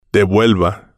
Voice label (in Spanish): devuelva, Spanish